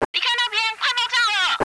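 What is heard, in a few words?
blow, radio, Chinese woman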